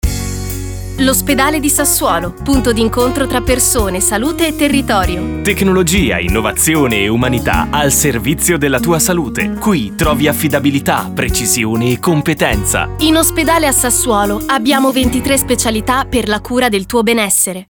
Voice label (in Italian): spot; OSPEDALE DI SASSUOLO